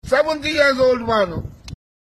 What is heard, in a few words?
70 years old man